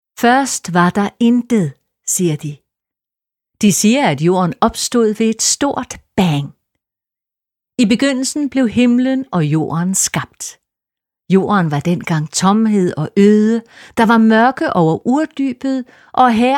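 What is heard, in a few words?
Danish, Audiobook, female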